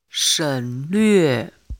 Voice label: chinese pronunciation u yu